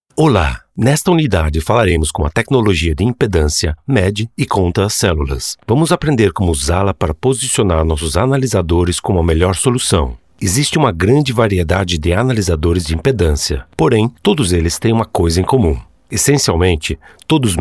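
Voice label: Brazilian Portuguese, Corporate; GoLocalise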